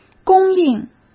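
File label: "供应", Mandarin Chinese; chinese-flashcards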